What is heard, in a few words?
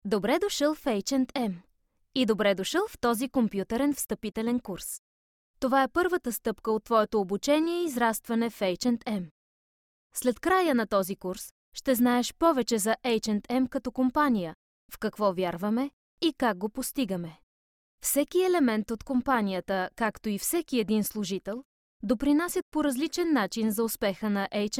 bulgarian f e-learning; voice demos